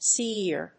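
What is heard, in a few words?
"sea-ear", English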